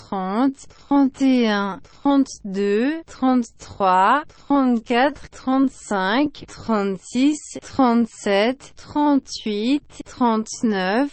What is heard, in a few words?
french